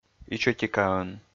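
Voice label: "ичӧтикаӧн", Komi, male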